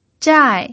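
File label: "zhai" Mandarin, fourth tone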